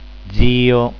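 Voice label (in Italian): "zio", Italian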